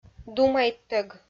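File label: "думайттӧг", Komi, female; kpv audio dict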